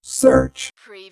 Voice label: Robot voice, "search"; lynne publishing